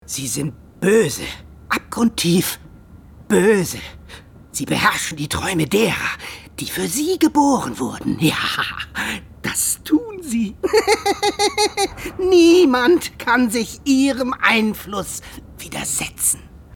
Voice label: hörspiel

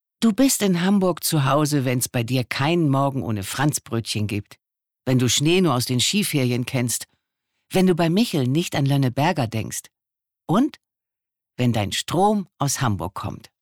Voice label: Werbung Strom; HH STROM

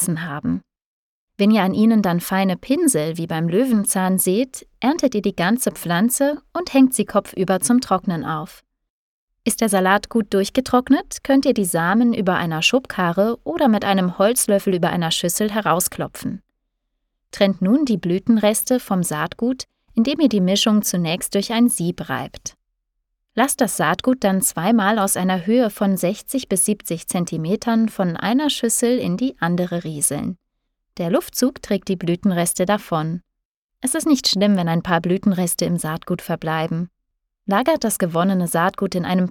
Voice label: Lehrfilm Saatgutgewinnung; Acker e.V.

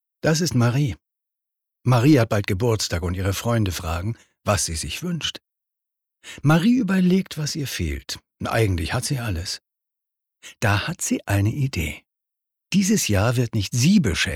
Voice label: Webung; MARIE

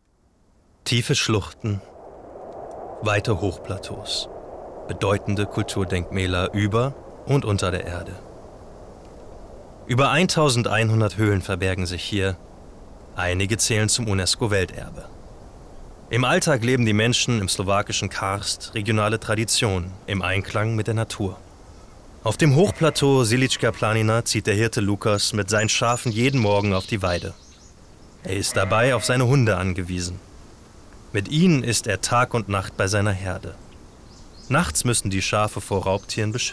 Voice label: Doku Slowakei, German

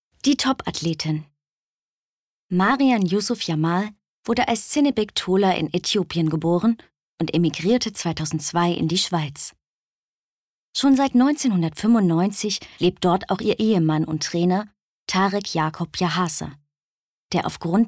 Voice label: Hoerbuch

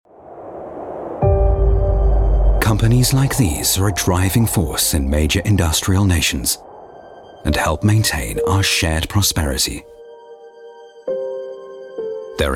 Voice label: Imagefilm; Viega

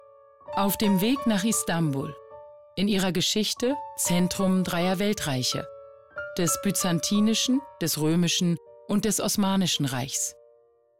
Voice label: 3sat Doku; Almanya in Anatolia

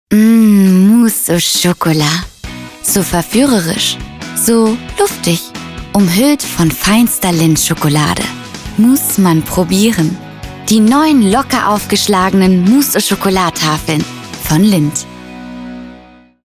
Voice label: Werbung DE; Lindt

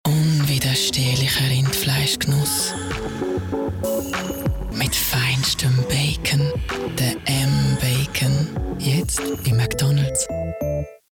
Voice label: SCHWEIZERDEUTSCH FEMALE Werbung Off; Mc Donalds; MBacon; LOFT VOICES

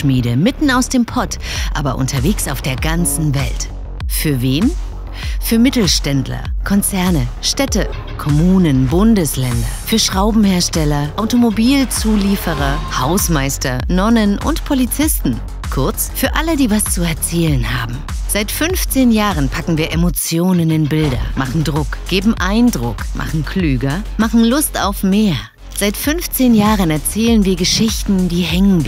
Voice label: Imagefilm; tremoniamedia

